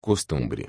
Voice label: Costumbre en portugues

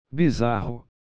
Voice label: Bizarro en portugues

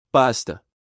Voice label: Pasta en portugues

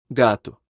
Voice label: "Gato" en portugues

